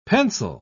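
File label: "pensile", English, British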